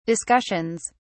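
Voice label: "discussions", British English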